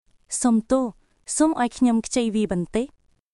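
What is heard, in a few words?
Khmer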